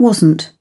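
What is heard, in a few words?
"wasn't", British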